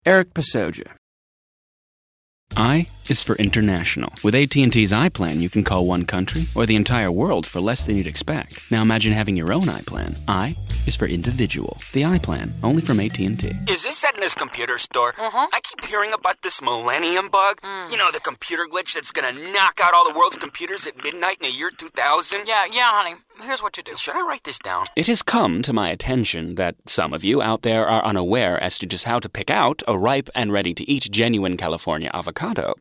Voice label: commercial; Innovative LA